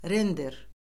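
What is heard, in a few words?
"render", Azerbaijani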